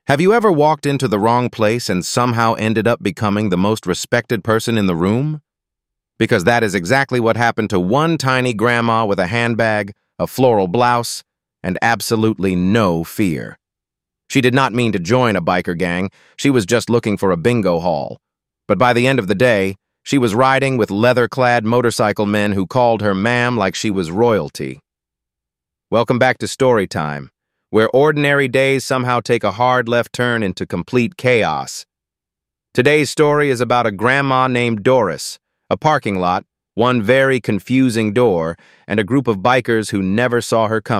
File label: voiceover